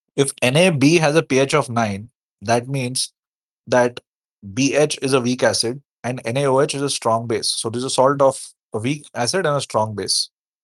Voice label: voice message